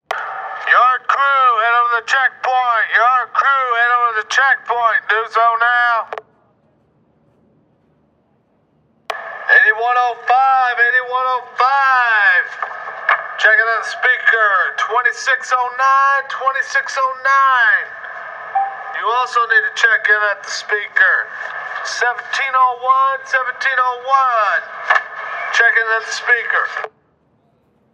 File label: emergency prison loudspeaker announcements male guard usa; felix blume